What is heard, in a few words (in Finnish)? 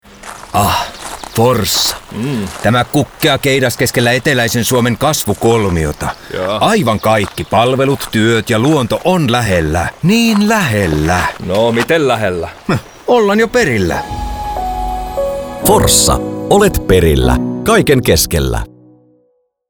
radiomainos; forssa; 20 sek spotti